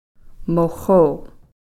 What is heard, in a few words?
"moxo", Cow Creek; buzzard